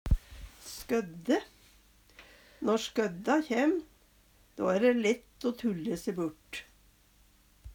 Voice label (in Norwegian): "skødde", Numedalsmål; Når skødda kjem, æ dæ lett å tulle se burt. I skødda vær æ dæ fort o tulle se burt.